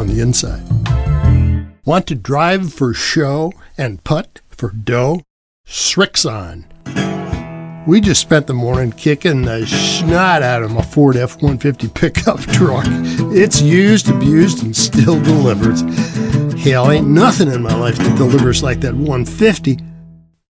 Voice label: Commercial